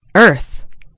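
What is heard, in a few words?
"earth", American English; gcompris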